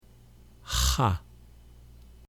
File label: "cha", hebrew